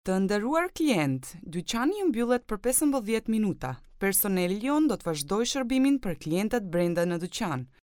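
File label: Albanian, female, narration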